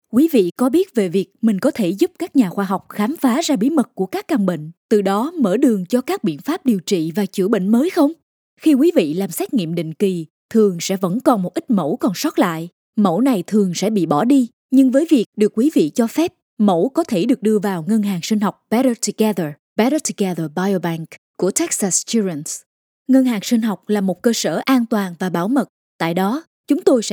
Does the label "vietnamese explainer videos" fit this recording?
Yes